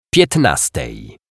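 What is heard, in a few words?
"pietnastej", Polish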